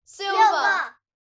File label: human children x5 under 10 english say silver; zapsplat